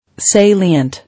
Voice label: "Salient", English